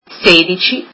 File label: sixteen, italian